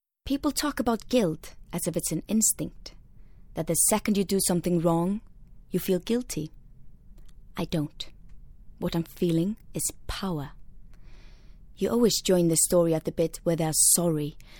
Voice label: Ice Eng